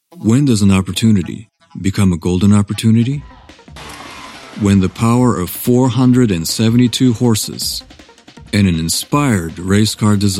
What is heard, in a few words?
Japanese English